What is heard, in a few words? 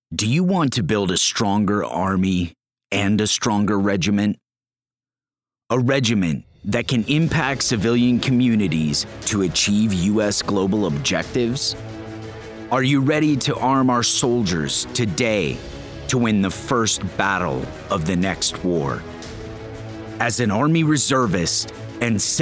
recruitment commercial; Civil Affairs